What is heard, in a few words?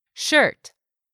"SHIRT", english; 3000 words